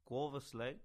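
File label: "Gwavas Lake", English; place-names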